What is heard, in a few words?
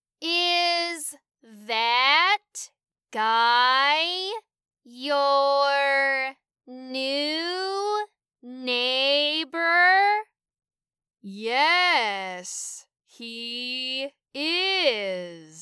Dialogue Medium